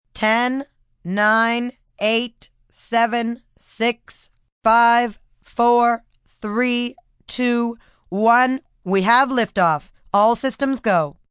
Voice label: Countdown, PA, announcements, female countdown to lift off from 10; human voice speaking; VoiceBox